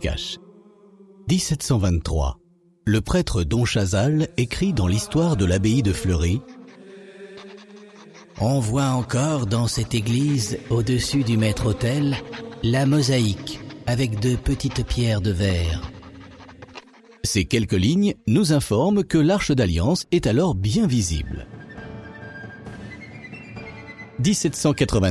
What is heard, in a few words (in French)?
audioguide oratoire carolingien de germigny